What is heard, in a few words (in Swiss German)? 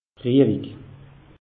"riehwig", alsace; meteo